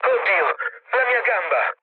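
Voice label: Italian damaged pilot mood low, speech; War Thunder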